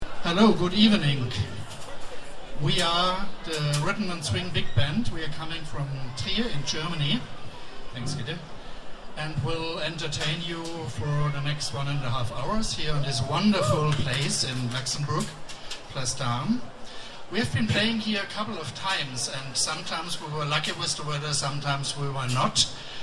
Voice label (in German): Ansage